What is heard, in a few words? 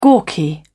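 "gawky", British English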